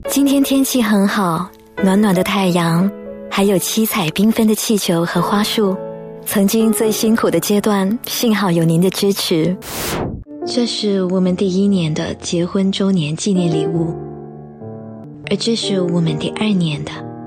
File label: Sensual young